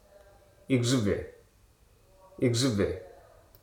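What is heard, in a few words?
"knuckle", spoken word; hruso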